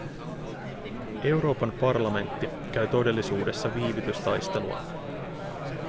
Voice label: babble FIN